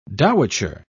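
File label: "dowitcher", British English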